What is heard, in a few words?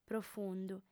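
"profundu", female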